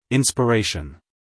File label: "inspiration" US English, male; everyone-can-use-english